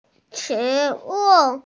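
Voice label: "shi2wu4", Mandarin Chinese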